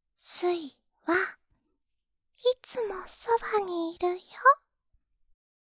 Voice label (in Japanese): 励ましボイス